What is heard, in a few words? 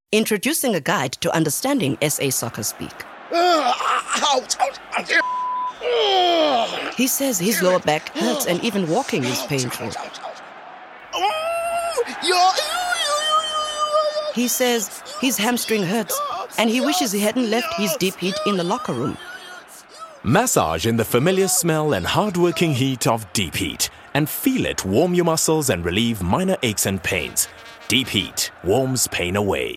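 English South Africa, female, commercial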